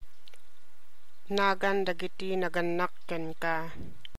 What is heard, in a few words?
Ilocano; name of your parents - nagan dagita nagannak kenka